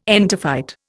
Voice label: "entophyte", Indian